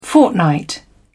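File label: "fortnight", English, British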